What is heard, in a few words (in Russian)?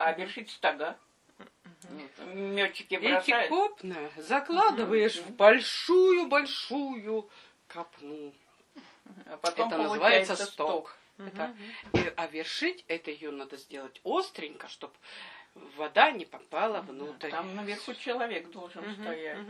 среднеобский говор; вершить